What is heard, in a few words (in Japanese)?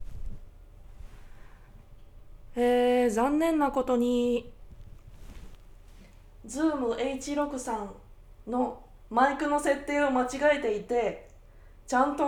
状況説明 ステレオ